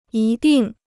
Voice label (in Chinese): "一定", Chinese